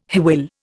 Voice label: "hwyl", Indian